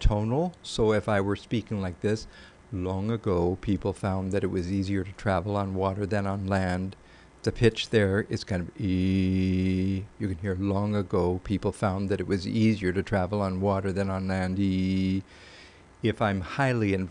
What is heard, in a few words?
Average anchor frequency